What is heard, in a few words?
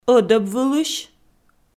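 "ӧдӧбвылысь", Komi, female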